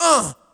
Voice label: "uh", male